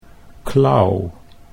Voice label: "klauw", Dutch